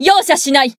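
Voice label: Voice attack, Japanese; herena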